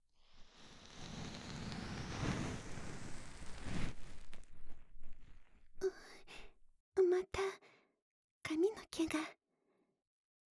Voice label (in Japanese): 右 こしょこしょ囁き